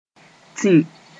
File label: "tĩ", Moore; infuse